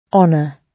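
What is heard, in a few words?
"honour", British English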